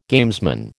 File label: "gamesman", American